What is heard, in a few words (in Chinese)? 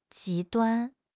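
"极端", Chinese; HSK6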